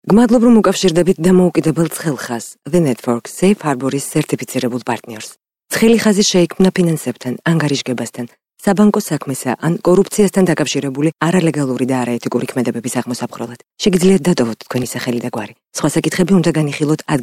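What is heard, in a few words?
georgian female narration; voice demos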